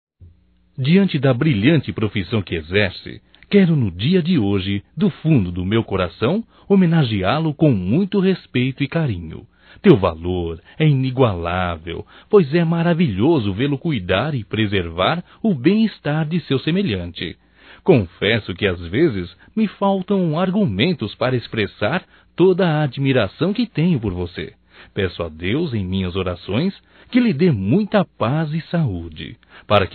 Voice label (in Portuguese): Dia Médico Masculino; Telemensagens; Só Voz; DATAS COMEMORATIVAS